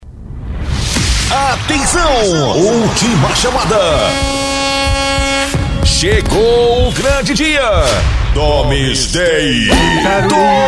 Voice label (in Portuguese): locutor